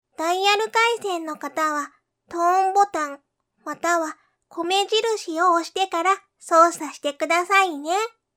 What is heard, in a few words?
child, voice